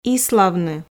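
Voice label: "иславны", Komi, female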